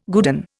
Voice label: "guerdon", Indian